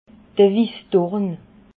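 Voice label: "wissdorn", Alsatian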